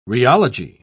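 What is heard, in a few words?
"rheology", British English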